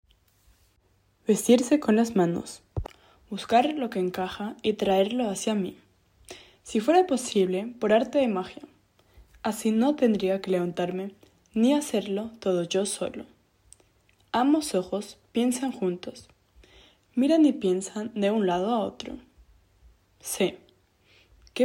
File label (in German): spanisch